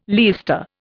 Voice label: "leister", Indian